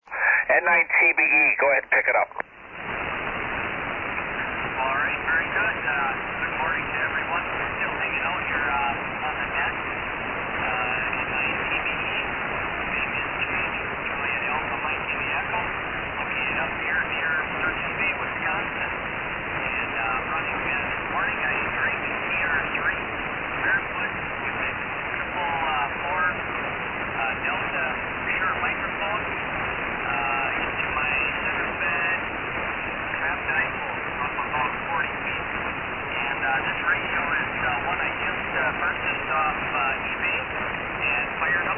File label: Drake TR-3 Shure 444 Mic; Forestville, Wi.